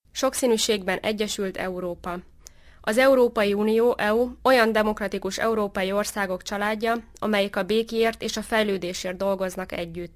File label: speech hu